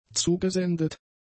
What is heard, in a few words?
"zugesendet", German; adjectives